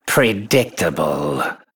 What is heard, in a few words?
Male Ankoan Trigger